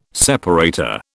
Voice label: "separator", British